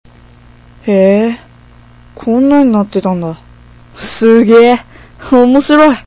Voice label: funny m

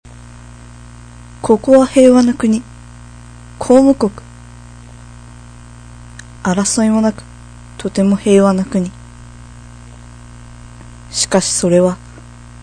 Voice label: narration serious

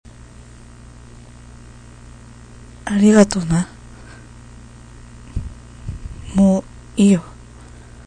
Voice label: thanks m

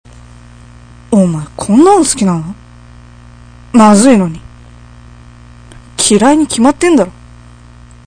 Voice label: dislike m

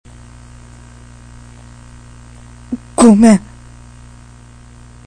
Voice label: sorry m

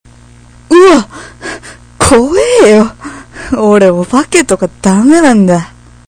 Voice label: terrible m

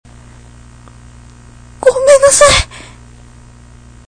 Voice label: sorry w

